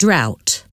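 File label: "drought", English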